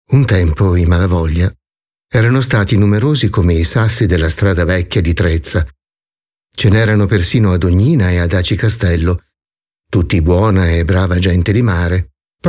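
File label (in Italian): Descrittivo, voci maschili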